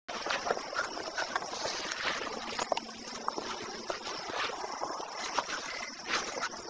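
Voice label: whispering conversation, EVP; Goodrich Cemetery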